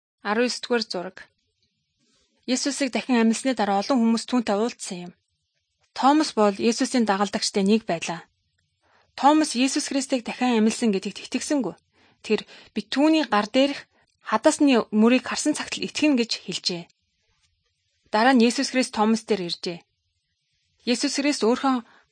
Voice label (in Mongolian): good news mongolian